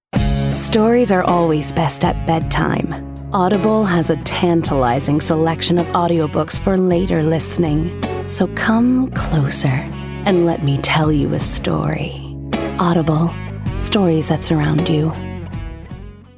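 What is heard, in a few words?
Commercial, female; Audible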